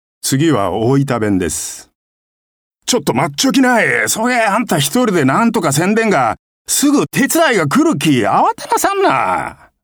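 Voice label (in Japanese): 方言, 大分県 （大分弁）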